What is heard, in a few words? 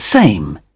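"same", British English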